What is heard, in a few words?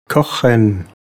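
"kochen", German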